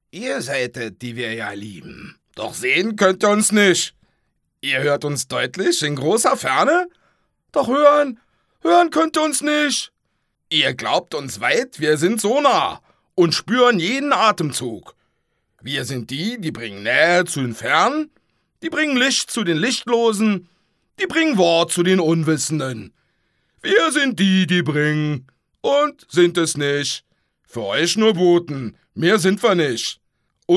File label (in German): dialekt berlinerisch, maenner